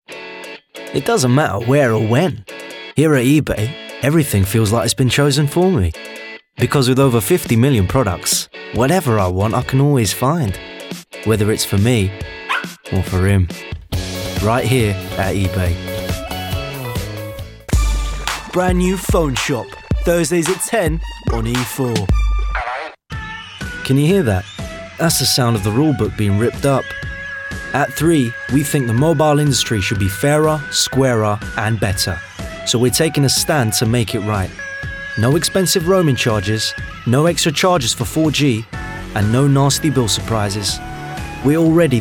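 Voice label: English UK male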